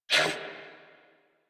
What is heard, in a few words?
Threatened, Female; Warframe; New War; Narmer Grineer